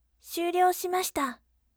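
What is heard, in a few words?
voice syuryo shimashita bright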